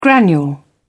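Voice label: "granule", British English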